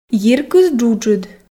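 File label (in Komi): "йиркыс джуджыд", Komi, female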